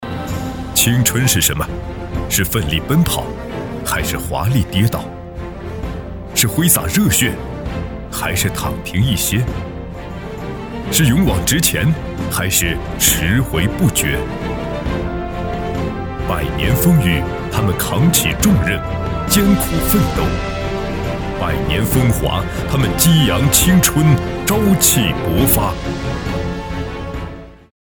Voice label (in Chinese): 专题片警队青春